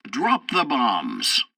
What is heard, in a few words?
tutorial assault bombing, english; War Thunder